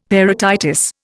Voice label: "parotitis", Indian; Dictionary